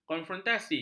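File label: "konfrontasi", Malay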